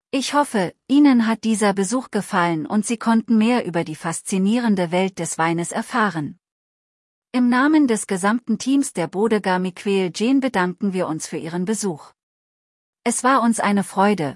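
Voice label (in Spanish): saludos, German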